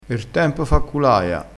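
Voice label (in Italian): "culaia", dialettale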